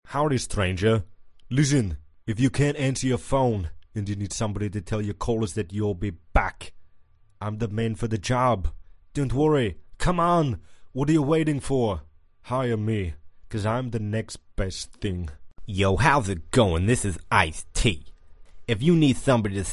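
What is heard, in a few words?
American character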